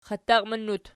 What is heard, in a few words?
"xattaqminnut", Archi